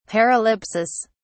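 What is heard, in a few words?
"paralipsis", British English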